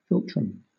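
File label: "philtrum", British English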